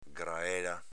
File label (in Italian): "graela", Veneto; s.f. graticola